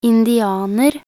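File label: "indianer", Norwegian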